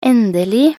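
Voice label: "endelig", Norwegian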